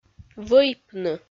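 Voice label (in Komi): "вӧйпны", Komi-Zyrian, female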